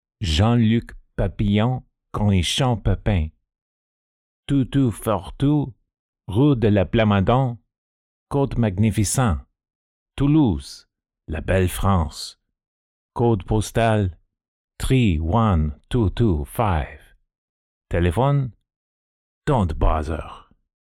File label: French Accent